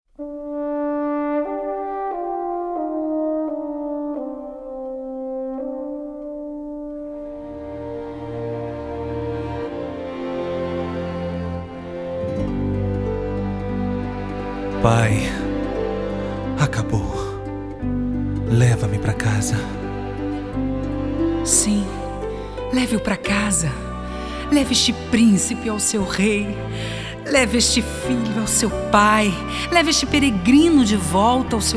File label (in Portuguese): Narração